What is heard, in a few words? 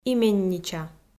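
"именнича", Komi, female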